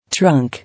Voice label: "Trunk", English